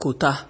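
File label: "coaltar", Nigerian Pidgin, male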